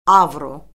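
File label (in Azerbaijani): "avro", Azerbaijani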